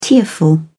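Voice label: "tearful", British English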